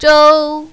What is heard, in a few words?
"zhou5", mandarin, female